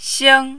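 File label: "xing1", mandarin, high level tone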